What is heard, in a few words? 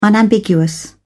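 "unambiguous", British English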